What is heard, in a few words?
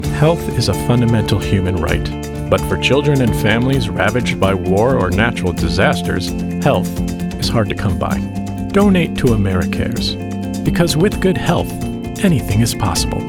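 Commercial compassionate caring, male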